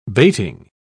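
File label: "bating", British English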